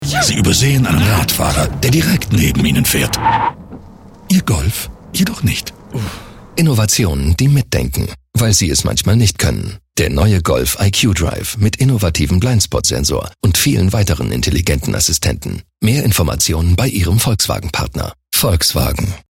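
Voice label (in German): Werbung Neutral; Fremdtake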